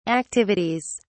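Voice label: "activities", British English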